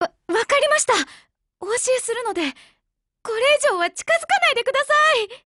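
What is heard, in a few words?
tip, Japanese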